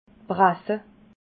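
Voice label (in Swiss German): "brasse", Alsatian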